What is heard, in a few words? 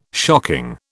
"shocking", British